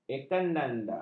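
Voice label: "ekanada", Malay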